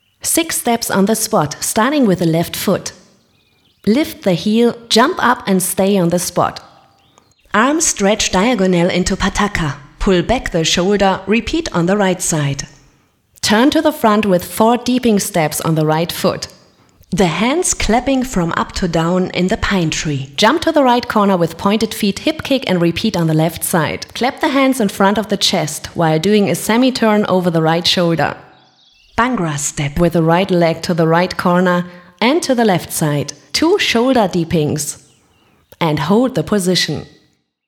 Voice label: Englisch Bollydance Workout DVD

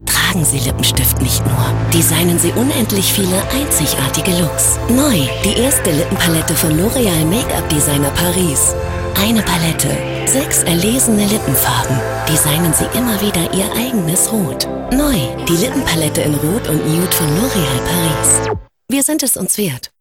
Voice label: TV Spot Color Riche; L-Oréal

